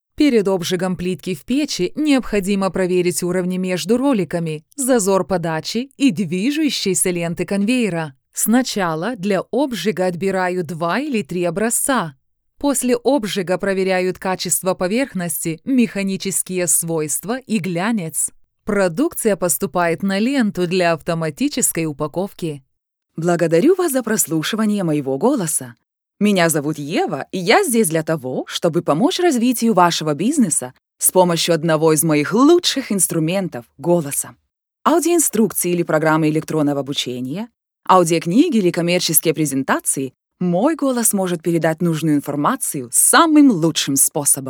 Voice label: female, Lithuanian, Russian